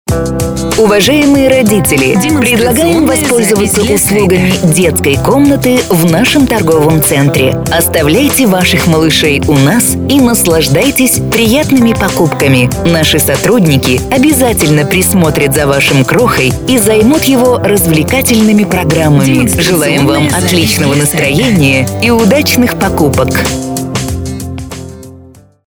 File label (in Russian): Детская комната женский